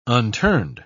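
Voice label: "unturned", English, British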